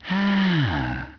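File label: male wow; People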